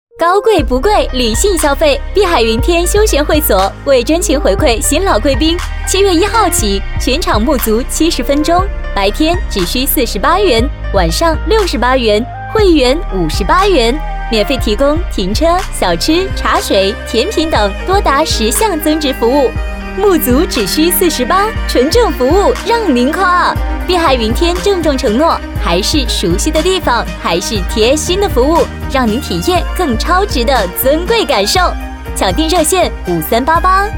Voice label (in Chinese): 女, 广告, 甜美活力; 碧海云天休闲会所